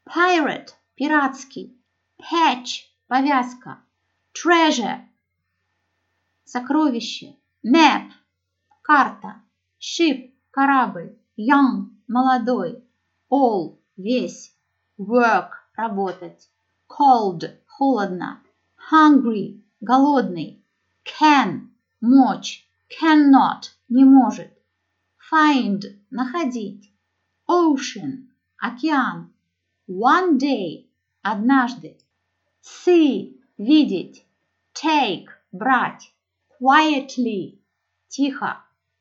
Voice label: Zapis proiznosheniya slov; PIRATE PATCH